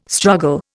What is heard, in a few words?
"struggle", Indian